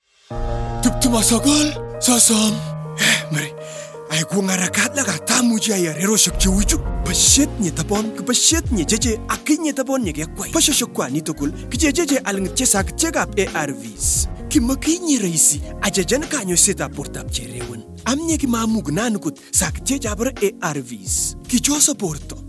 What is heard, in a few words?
Time Up HIV Spot New on Treatment Kupsabiny